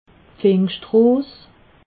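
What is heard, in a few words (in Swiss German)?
"pfingschtros", Alsatian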